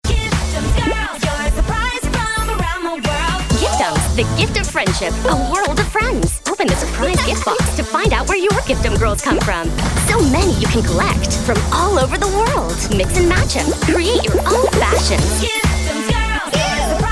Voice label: High Energy

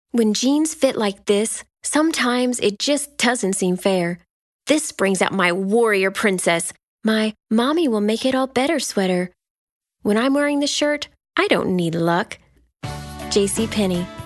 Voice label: Conversational

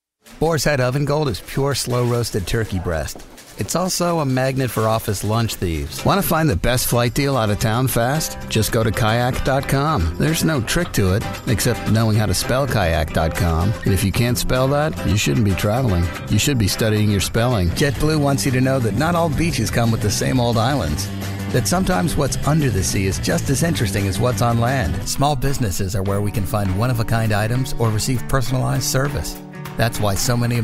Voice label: Conversational

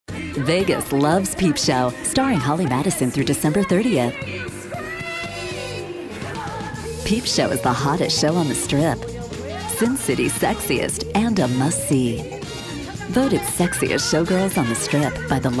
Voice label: Sexy Sultry

